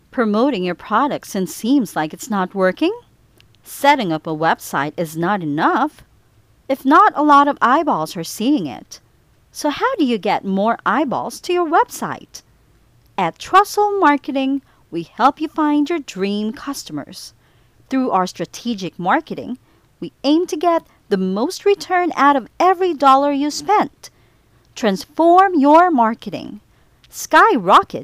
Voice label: English (Asian), Female